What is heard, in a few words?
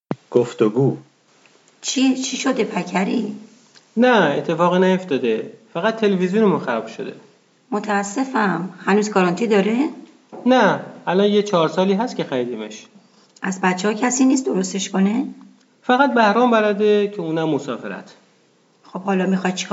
Dialog, persian